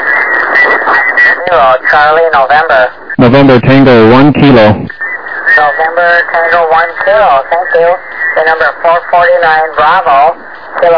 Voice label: SSB USB 21MHz